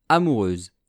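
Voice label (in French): "amoureuse", French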